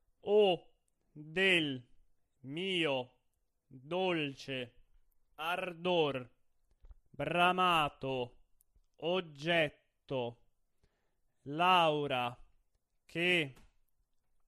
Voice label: monotono

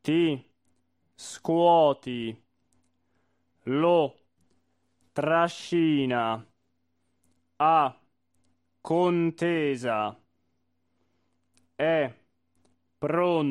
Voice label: monotono; Otello

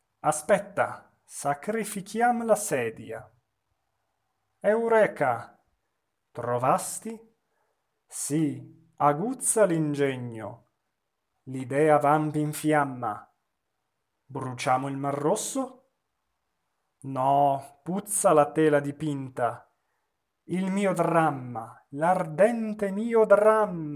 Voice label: espressivo

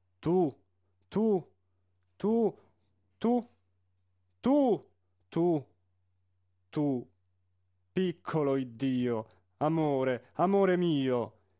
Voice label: espressivo; Madama Butterfly

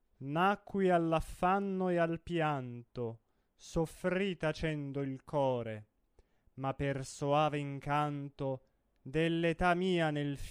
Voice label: espressivo

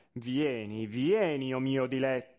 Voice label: espressivo

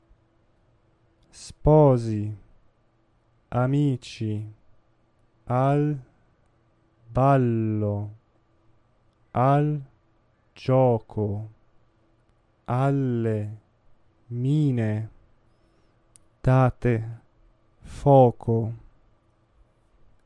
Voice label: monotono; Le nozze di Figaro

